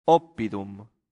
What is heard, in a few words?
"oppidum", latin; santillana